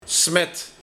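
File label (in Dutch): "Smet", dialect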